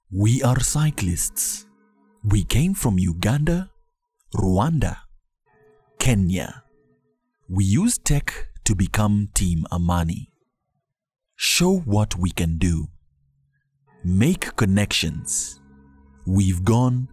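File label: African English, male, Narration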